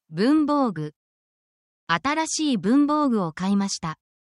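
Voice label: Japanese word, essential Japanese phrases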